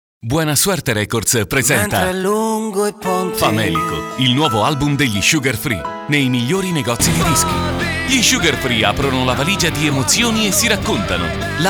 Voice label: spot